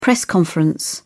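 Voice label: "press conference", British English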